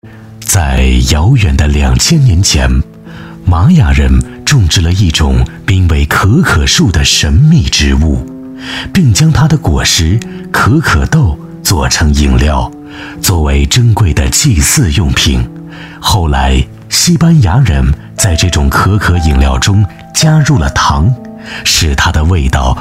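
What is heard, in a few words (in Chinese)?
男S347 国语 男声 广告-食品广告-成熟品质 大气浑厚磁性 沉稳; 可可琳纳巧克力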